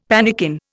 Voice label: "pannikin", Indian; Dictionary

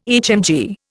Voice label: "HMG", Indian

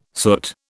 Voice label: "soot", British

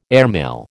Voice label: "airmail", American

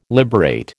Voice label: "liberate", American

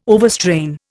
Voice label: "overstrain", Indian

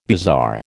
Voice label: "bizarre", American

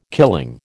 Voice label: "killing", American

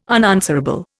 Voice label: "unanswerable", Indian

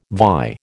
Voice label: "vie", American

